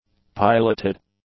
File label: "piloted", english; adjectives